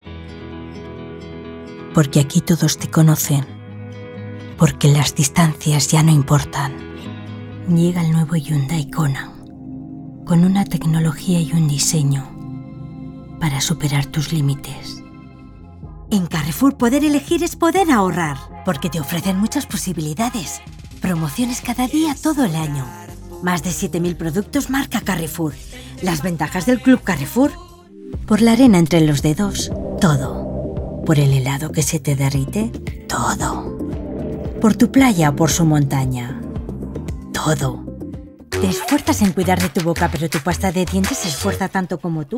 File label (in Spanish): PUBLICIDAD, mujeres 35 a 50